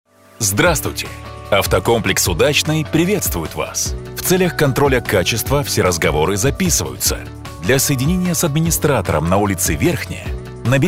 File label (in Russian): Мужской голос на номер автокомплекса; ДИКТОРЫ ДЛЯ IVR